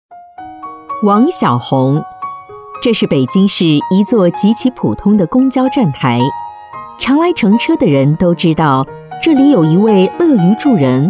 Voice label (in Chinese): 女 专题 人物解说介绍类深情